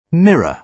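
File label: "mirror", British English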